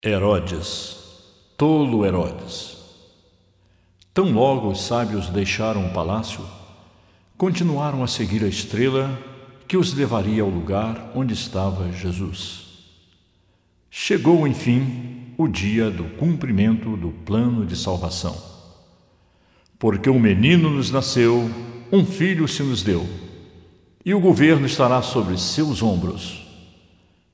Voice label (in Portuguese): Narração